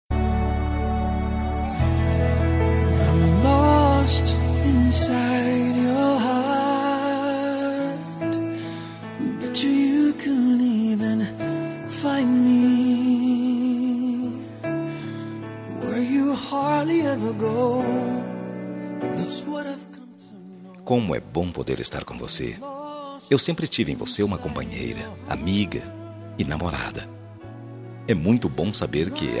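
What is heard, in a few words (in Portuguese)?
NAMORADOS PARA ESPOSA male, musica romantica internacional, telemensagem; telemensagem dia dos namorados para esposa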